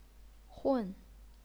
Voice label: "hun4", Chinese pinyin

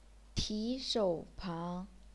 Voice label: "ti2 shou3 pang2", chinese pinyin

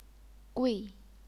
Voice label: "gui4", chinese pinyin

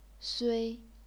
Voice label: "sui1", chinese pinyin

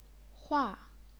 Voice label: "hua4", chinese pinyin

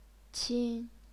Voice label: "qin1", chinese pinyin; 钦; 侵; 衾; 亲

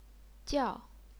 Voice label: "jiao4", chinese pinyin

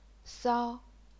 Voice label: "sao1", chinese pinyin

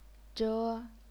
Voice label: "zhe1", chinese pinyin

